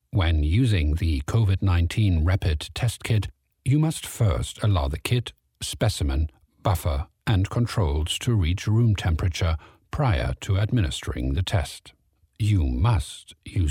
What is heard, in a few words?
male german voice over talent english